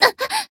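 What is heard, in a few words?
Voice damaged, Japanese; toova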